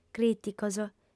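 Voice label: "crìticos", female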